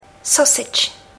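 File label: "sausage", English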